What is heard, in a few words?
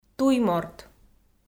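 "туйморт", Komi, female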